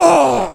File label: orc small die; wesnoth